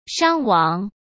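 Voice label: "伤亡", Mandarin; chinese flashcards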